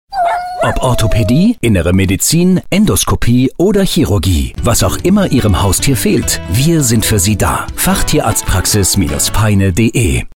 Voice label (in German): Radio Werbung